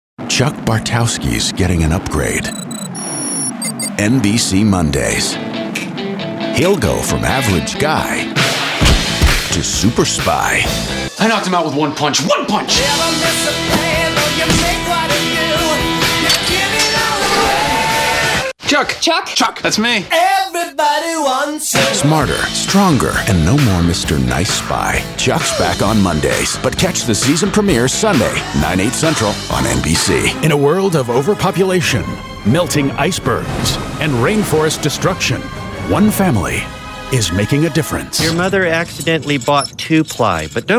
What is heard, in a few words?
promo, men